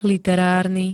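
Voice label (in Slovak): "literárny", Slovak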